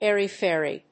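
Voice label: "airy-fairy", English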